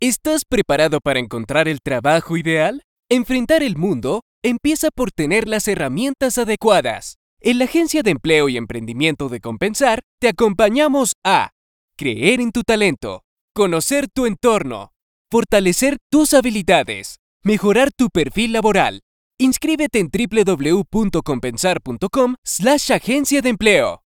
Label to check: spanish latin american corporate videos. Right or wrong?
Right